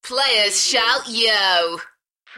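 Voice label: UK female, DJ vocals; beanstalk-audio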